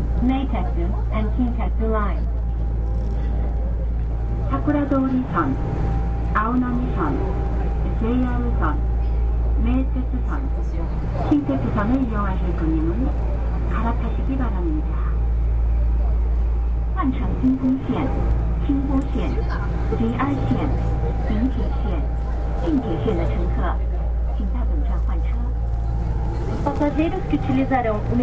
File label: nagoya announcement; 名古屋地下鉄のポルトガル語音声案内